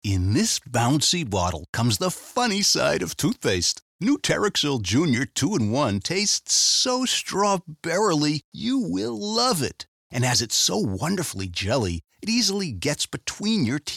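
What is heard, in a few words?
us englisch, US English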